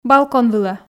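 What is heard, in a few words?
"балкон вылӧ", Komi, female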